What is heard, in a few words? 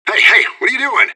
radio bot under fire friendly; fbihrt